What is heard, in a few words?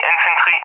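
"infantry", English speech; Allied Flight; DCS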